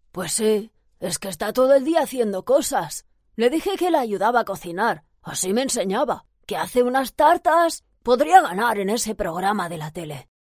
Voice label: spanish voz adolescente